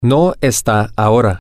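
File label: Spanish, male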